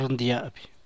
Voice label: "rnii", San Mateo Macuilxochitl Zapotec; boil; hervir

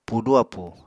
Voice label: "pudu appú", Apatani

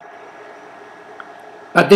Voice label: "puddle", Mokilese

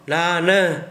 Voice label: "na=nǝ", Gta, Dialect: Hill; your (sg)

